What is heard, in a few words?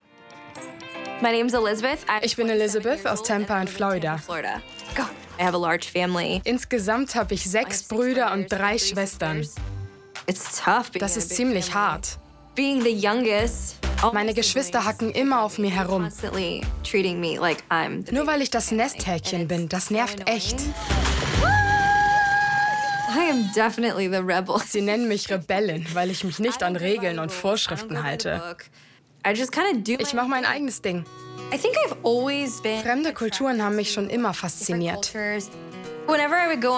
Voice Over